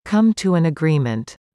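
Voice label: "come to an agreement", English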